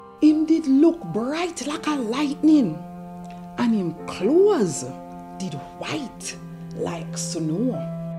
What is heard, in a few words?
verse, jamaican creole